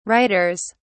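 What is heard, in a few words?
"writers", English, British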